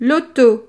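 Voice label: "loto", French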